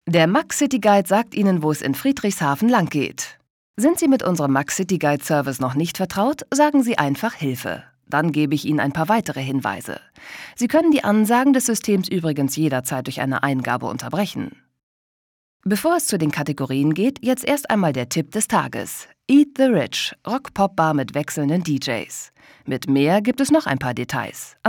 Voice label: telefon, deutsch frauen

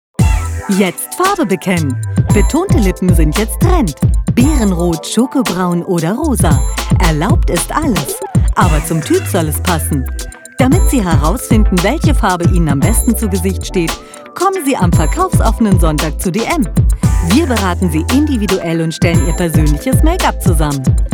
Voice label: Werbung

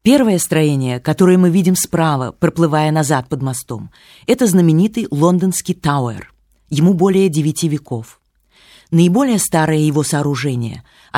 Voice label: russisch audioguide

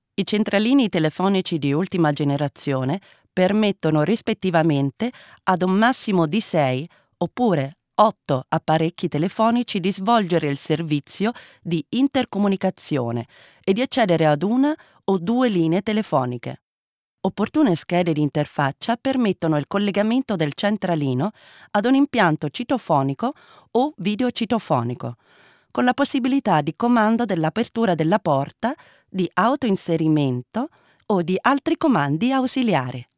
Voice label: it anleitung, italienisch; sprecher

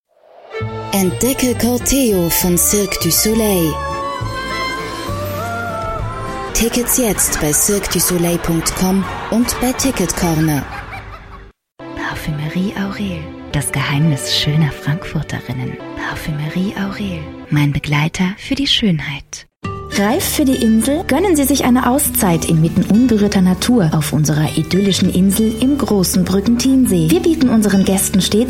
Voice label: werbung, deutsch frauen

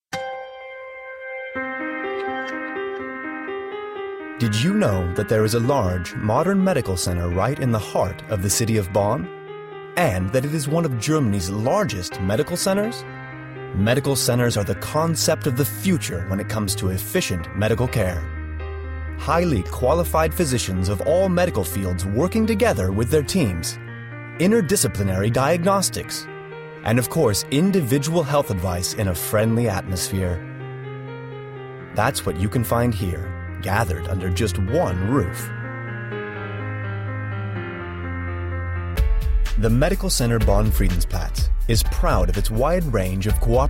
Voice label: US Werbung, englisch; sprecher

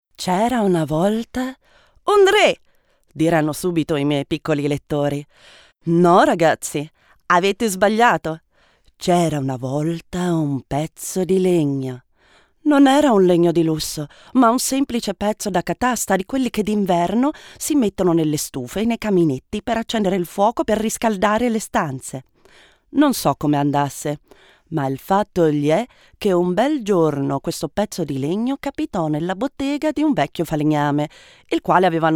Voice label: it hoerspiel, italienisch; sprecher

